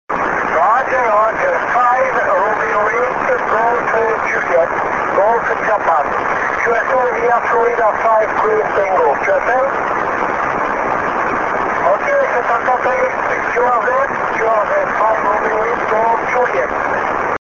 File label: 6m ssb